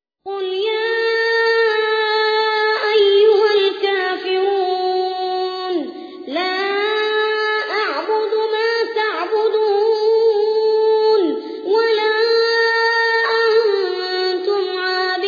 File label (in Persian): ترتیل سوره کافرون; محمد البراک